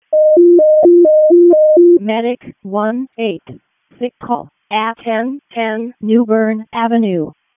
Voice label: EMS ALERT, p25

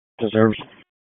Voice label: PS TAC, p25

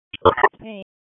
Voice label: EMS ALERT

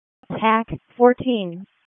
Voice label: RFD ALERT, p25

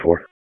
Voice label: Police District Tac, p25; wake

